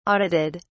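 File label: "audited", British English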